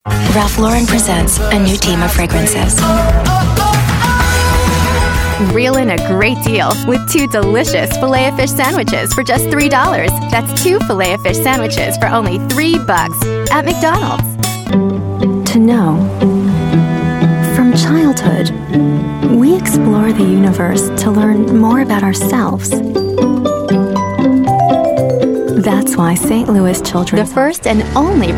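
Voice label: commercial sample US English female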